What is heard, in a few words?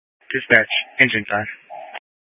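Fire Dispatch, scanner audio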